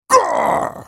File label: effort, en US; Udyr